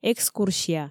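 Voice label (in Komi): "экскурсия", Komi, female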